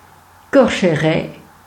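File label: "Korchèrê", Franco-Provençal, gruyère, Patua friburgais; greverin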